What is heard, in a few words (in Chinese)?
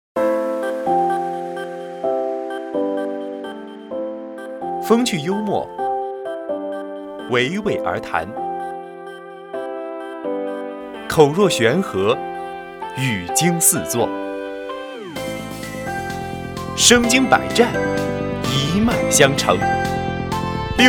宣传音频